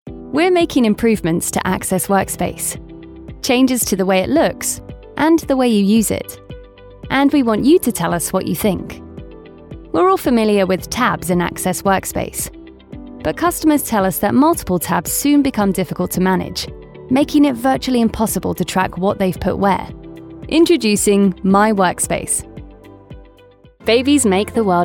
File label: Voiceovers UK Showreel